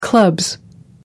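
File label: "clubs", English, British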